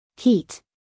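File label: "heat", American English, female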